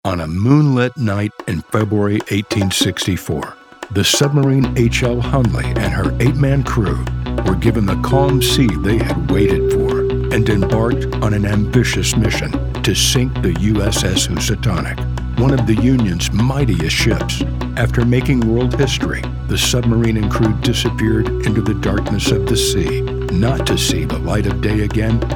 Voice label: E Learning

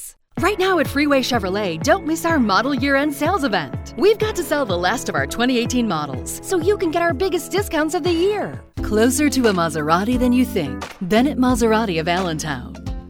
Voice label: car spot

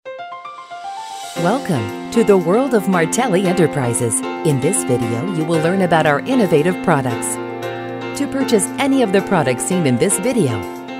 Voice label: Infomercial

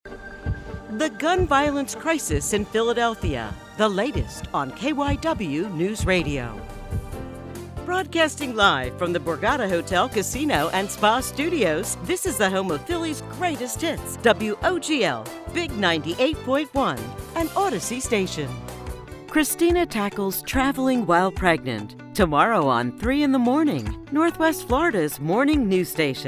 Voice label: Imaging

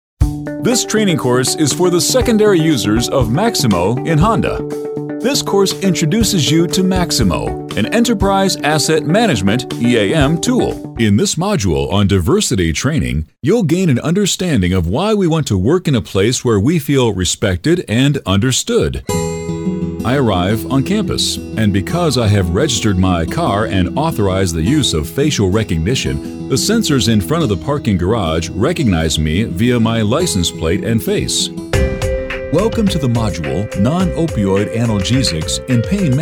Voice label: E Learning

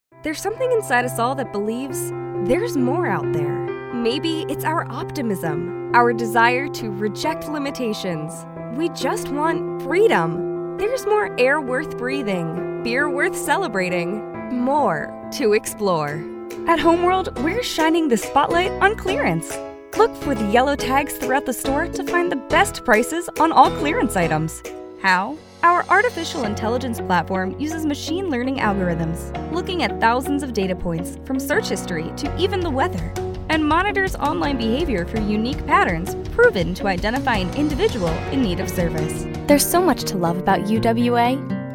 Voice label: Female Commercial; InternetJock; female voice over

